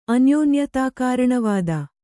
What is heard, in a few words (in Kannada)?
"ಅನ್ಯೋನ್ಯತಾಕಾರಣವಾದ", Kannada